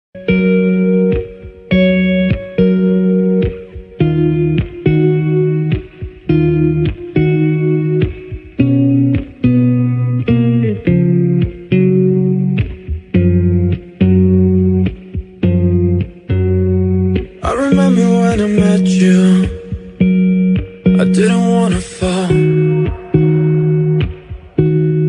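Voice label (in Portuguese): romantica masculina, Telemensagens; roamntica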